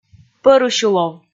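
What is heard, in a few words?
"пӧрысюлов", Komi, female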